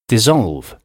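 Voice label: "dissolve", English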